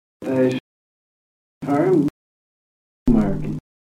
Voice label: kent bath, kent accent in the 19th century; appledore